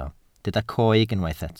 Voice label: "coeg", Welsh